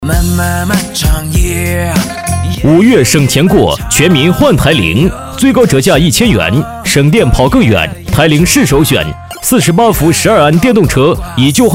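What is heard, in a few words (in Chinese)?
【男促销】五月省钱过，全民换台铃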